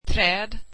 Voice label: trees, swedish